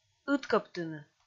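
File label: "ыткӧбтыны", Komi, female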